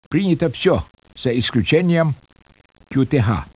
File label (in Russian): SPEECH, RUSSIAN